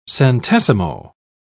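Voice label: "centesimo", British English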